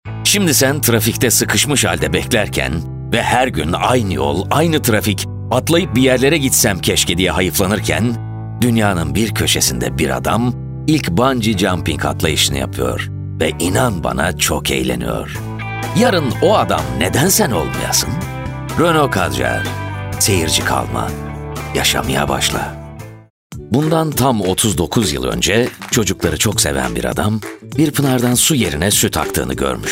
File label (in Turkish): turkce seslendirme